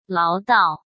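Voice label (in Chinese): "唠叨", Mandarin Chinese; chinese flashcards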